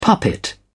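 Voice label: "puppet", British English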